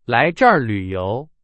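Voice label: Chinese, example sentence; hsk2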